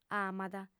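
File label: "amat", lingua sarda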